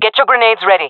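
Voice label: request grenade, female; vo; Counter Strike Model Pack; CS:GO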